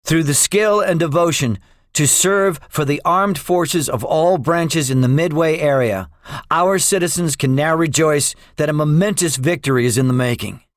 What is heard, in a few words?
debriefing, english; midway; War Thunder